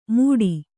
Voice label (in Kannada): "ಮೂಡಿ", Kannada